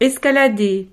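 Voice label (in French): "escalader", French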